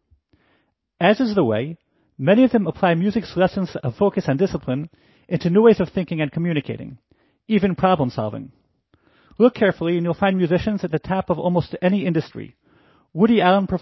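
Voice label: clean male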